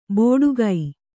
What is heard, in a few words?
"ಬೋಡುಗೈ", Kannada; bōḍu gai